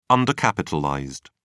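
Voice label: "undercapitalized", British English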